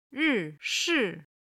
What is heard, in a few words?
"ri4 shi4", chinese